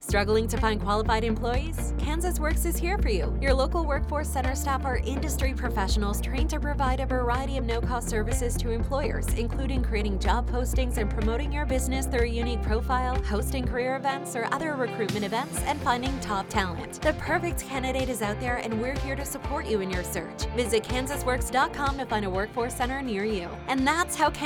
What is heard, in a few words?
Radio; KANSASWORKS; Employer